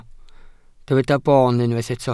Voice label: "boon", Welsh

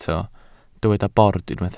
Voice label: "bord", Welsh

